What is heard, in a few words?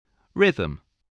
"rhythm", spelling